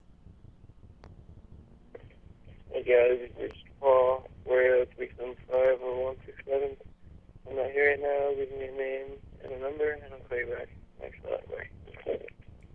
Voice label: voicemail